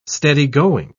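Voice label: "steady-going", British English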